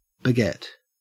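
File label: "beget", Australian English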